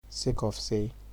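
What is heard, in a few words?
"sake of sey", Nigerian Pidgin, male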